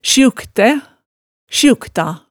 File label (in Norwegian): "sjuktæ", hemsedalsmaalet